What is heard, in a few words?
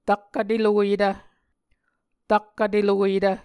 "tak vteloyetv", Muscogee